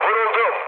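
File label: Turkish aircraft damaged, mood med, speech; War Thunder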